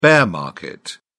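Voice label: "bear market", English, British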